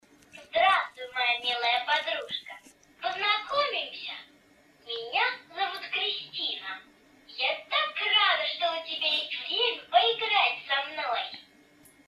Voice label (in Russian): govoriashaya kukla